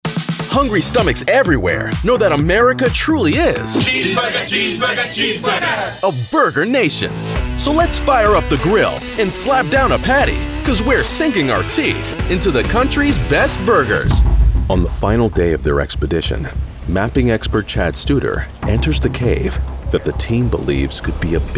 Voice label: TV In Show Documentary

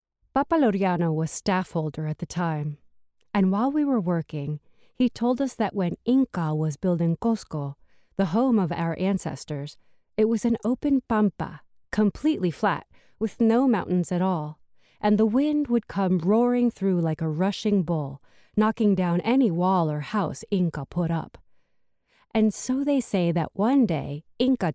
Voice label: Narration

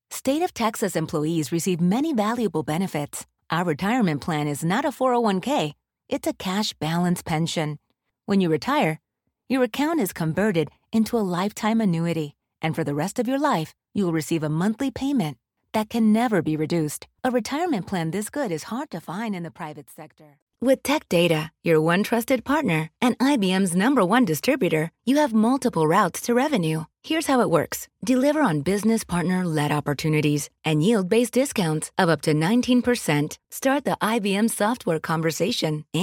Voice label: Latinx English Corporate Narration

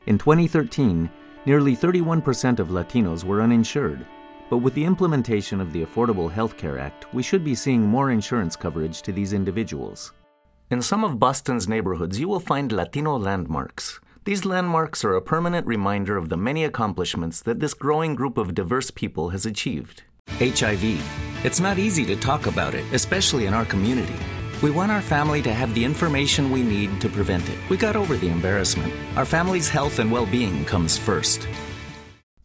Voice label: Narration English

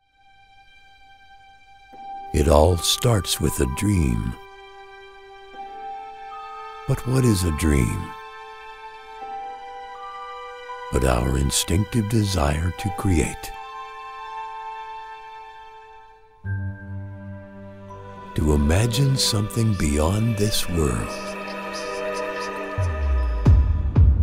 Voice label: Narration Industrial, voiceover

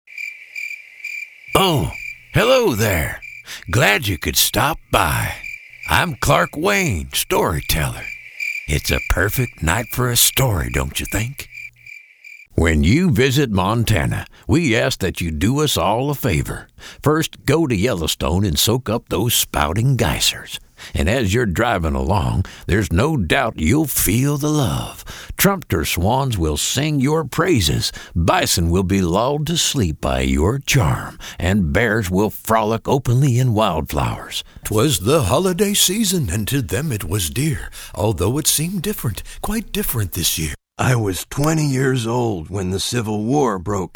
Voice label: Audio Drama

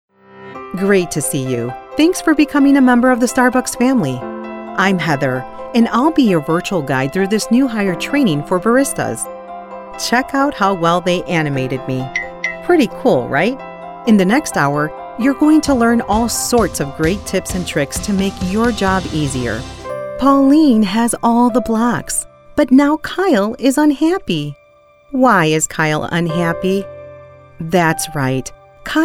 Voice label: English eLearning

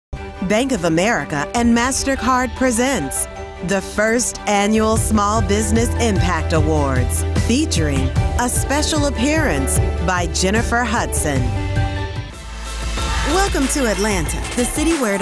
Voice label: Voice Of GOD

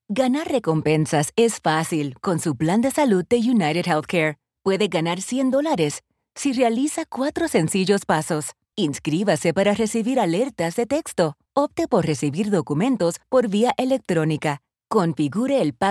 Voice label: Documentary Spanish

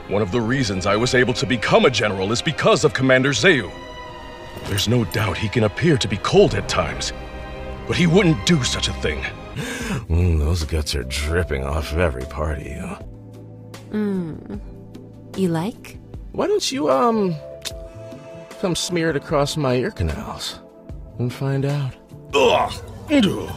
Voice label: Animation, voiceover

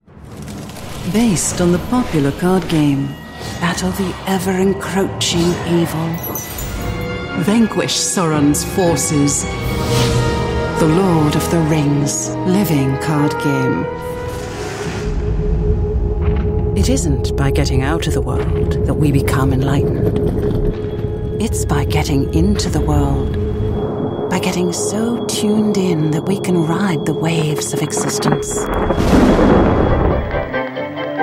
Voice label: Animation Character

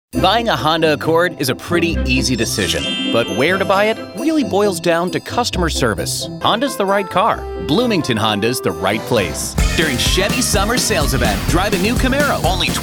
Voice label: Automotive Commercial

